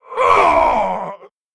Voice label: Male Lich Death